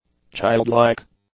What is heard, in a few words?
"childlike", English; adjectives